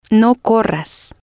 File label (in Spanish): no corras, Spanish